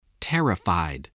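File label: "terrified", English word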